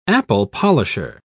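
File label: "apple-polisher", British English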